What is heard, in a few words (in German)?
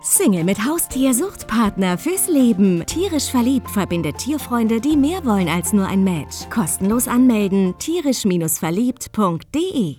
Funkspot, Radio, Werbespot; 10 Sek; Hit Radio FFH; Funkspot tierisch verliebt 10 Sek FFH Radio